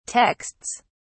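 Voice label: "texts", English, British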